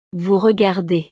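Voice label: "vous regardez", French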